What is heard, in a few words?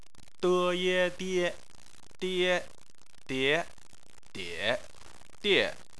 die, chinese